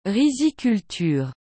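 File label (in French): "riziculture", French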